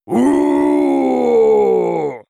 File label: warcry, zh; Tauren